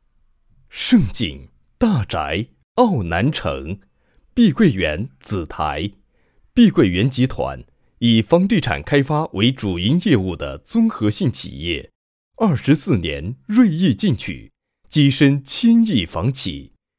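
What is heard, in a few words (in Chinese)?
大气浑厚房产; 碧桂园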